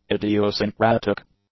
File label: "idiosyncratic", English; adjectives